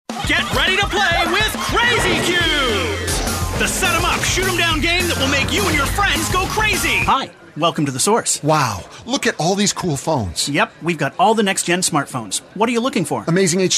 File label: Commerial